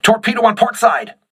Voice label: voice message torpedo left, crew ships english

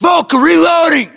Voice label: inform reloading, US; battlechatter; english

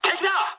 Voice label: enemy detected mood high, speech jp; War Thunder

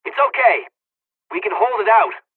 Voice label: dlg, hungarian; War Thunder

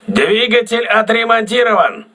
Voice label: voice message engine repaired, crew ships russian

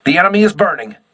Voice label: voice message enemy on fire, crew ships english

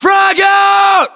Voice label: US inform attack grenade, battlechatter, english; localized english

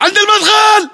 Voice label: landmark near int doorway, english battlechatter

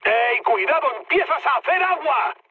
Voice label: damaged water, mood high, speech; War Thunder

